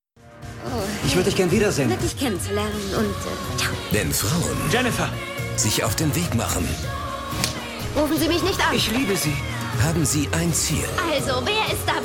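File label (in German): Trailer, Sprecher, Männer; Puls8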